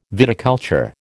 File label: "viticulture", American